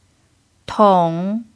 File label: "tong3", Chinese pinyin